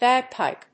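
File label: "bagpipe", English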